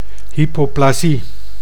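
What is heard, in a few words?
"hypoplasie", Dutch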